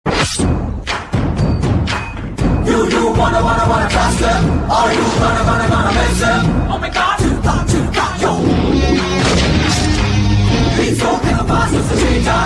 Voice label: comedy